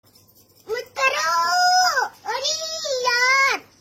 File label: Abe Yar Funny Ringtone for sms notifications